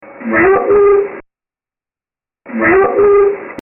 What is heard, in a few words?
help me infant